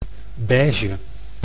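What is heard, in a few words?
"beige", Dutch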